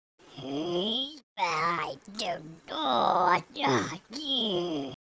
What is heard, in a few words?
angry, speech; Biggles